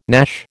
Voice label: "gnash", American